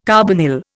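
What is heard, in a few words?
"carbonyl", Indian English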